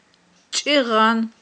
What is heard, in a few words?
"чIигъан", Aghul